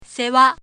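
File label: "sewa", Japanese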